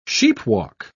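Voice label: "sheepwalk", British English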